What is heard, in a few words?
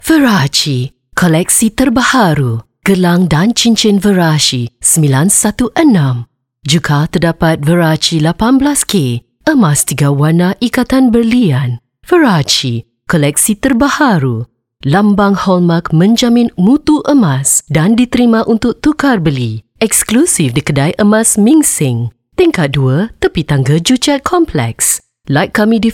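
Malay, female, advert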